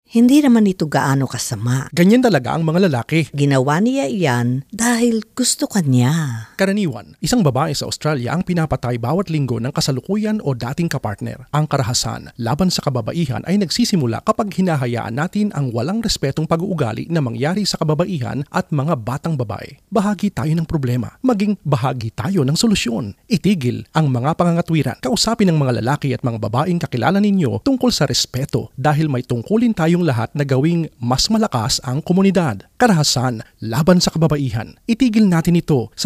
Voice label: tagalog

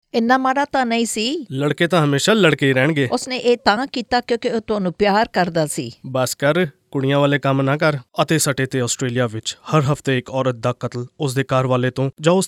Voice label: punjabi

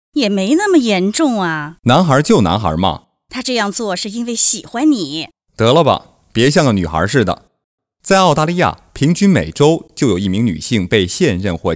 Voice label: mandarin

